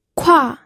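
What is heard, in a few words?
"kua", fourth tone, pinyin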